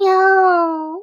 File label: happy